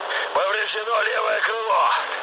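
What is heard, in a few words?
Russian left wing mood low, speech; War Thunder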